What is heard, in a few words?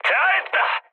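Korean bomb success mood high; War Thunder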